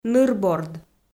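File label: "нырборд", Komi, female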